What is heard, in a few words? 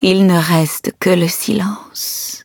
defeat, fr; Cookie Run